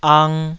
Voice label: "ang2", taiwanese

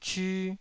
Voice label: "cyu2", Taiwanese syllable

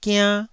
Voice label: "giann1", Taiwanese syllable

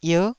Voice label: "ier9", taiwanese syllables

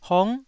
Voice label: "hong9", taiwanese syllable

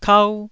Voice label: "kau1", taiwanese syllables

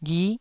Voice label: "ghi9", Taiwanese syllable

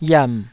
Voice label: "yam3", taiwanese Syllables

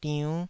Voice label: "diunn5", taiwanese syllable

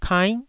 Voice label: "kainn9", taiwanese syllables

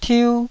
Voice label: "tiu1", taiwanese Syllables

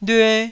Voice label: "lyue1", Taiwanese

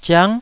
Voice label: "ziang9", Taiwanese

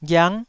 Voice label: "ghiang9", Taiwanese

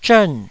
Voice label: "zhern4", Taiwanese

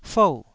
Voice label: "fou4", Taiwanese

